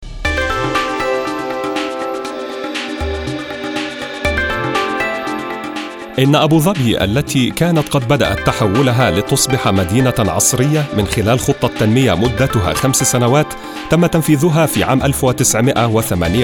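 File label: Arabic, male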